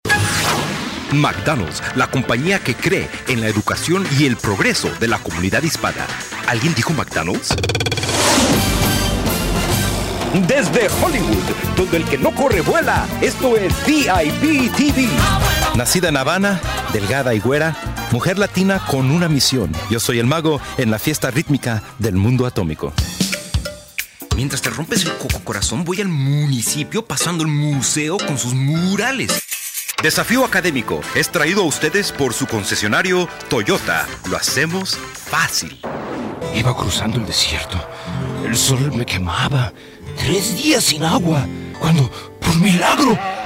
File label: Commercials Spanish